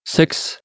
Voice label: Danish